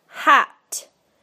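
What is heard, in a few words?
"hat", British English; PictureDictionary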